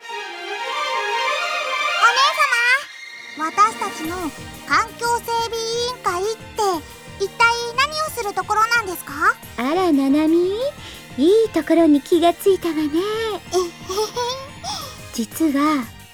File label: Voice Drama